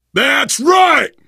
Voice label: taunt, biker; voice; source engine; left 4 dead 2; survivor

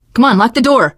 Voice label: close the door, teen girl, survivor voice

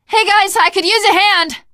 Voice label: ledge hang start, teengirl; voice; source engine; left 4 dead 2; survivor

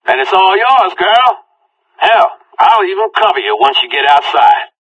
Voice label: conversation; Left 4 Dead 2; Source Engine; video games; npc; whitaker

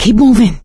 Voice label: emphatic go quiet, survivor, voice; source engine; left 4 dead 2; producer

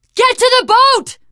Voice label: arrive boat, teen girl, survivor voice; source engine; left 4 dead 2

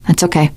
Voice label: you are welcome, teen girl

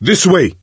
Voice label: misc directional, coach, survivor voice

